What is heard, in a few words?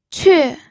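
"que", Mandarin Chinese, fourth tone; pinyin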